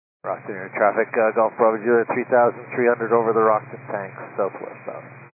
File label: 122 925MHz, scanner radio